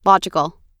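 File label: "logical", American English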